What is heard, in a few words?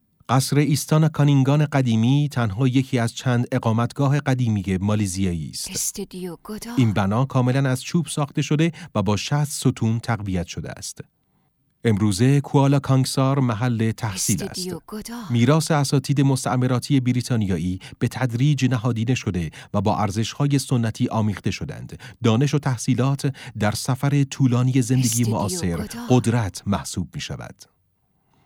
documentary